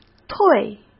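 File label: "退", Mandarin Chinese; chinese-flashcards; hsk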